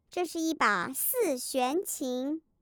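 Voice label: THIS IS A UKULELE, Mandarin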